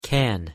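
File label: "can", English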